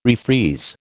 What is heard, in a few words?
"refreeze", American